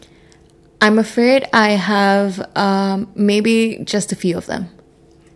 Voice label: female filler; interviewee